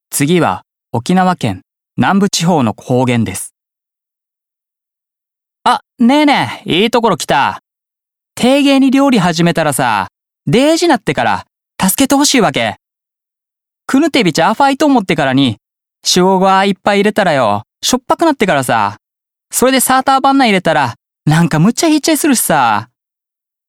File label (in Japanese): 方言